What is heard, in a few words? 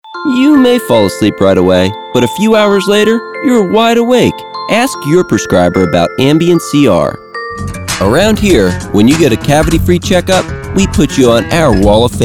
commercial, english male voices american accent